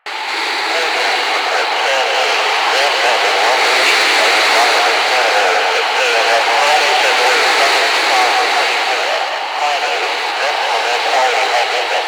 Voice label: Ambient Propaganda Loop; Warframe; Ambience; Nineteen Ninety Nine